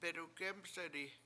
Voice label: "bedugEmseti", Washo; he helped him